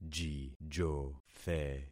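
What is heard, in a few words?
"jijothe", concatenated syllables; Affixing experiment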